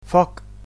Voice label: "fire", Catalan

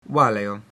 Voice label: I'm fine, latin

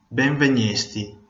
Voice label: welcome, venetian

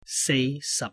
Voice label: cantonese, numbers

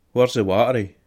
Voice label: toilet, scots

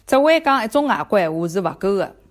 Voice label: one language shanghainese

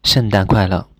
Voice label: christmas, mandarin

